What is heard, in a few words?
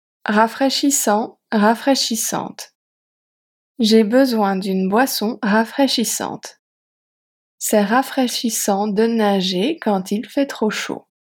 "Rafraichissant", french